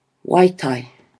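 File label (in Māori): "waitai", Māori word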